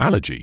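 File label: "allergy", British English; ˈæl.ə.dʒiː; AL-uh-jee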